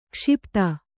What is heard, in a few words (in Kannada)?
"ಕ್ಷಿಪ್ತ", Kannada